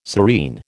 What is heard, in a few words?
"serene", American